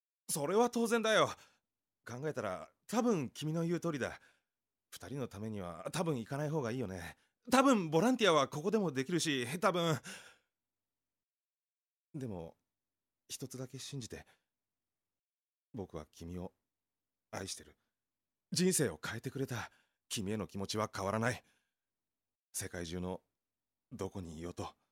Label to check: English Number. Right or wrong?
Wrong